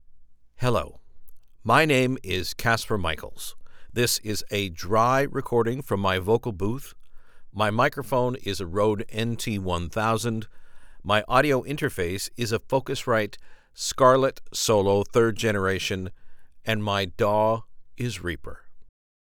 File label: Home Studio Sample DRY